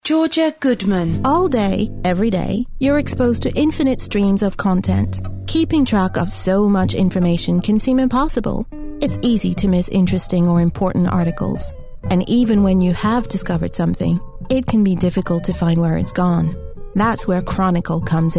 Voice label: American commercial voicereel